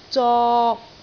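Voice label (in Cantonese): "zok3", Cantonese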